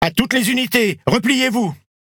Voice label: Speech Quick Commands Back, FR; World Of Warships